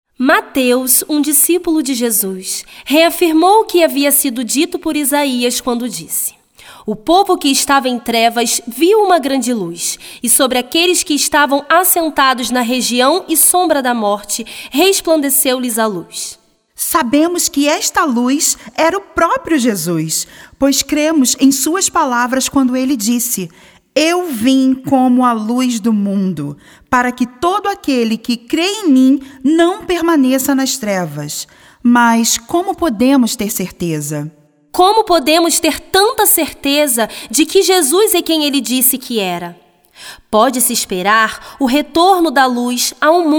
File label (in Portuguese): Narracao